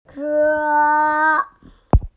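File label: "che1", female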